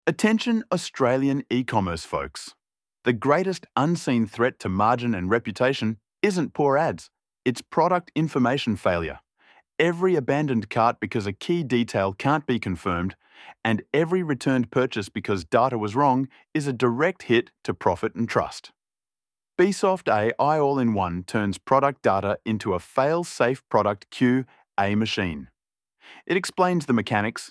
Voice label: retail chatbot; Beesoft Ai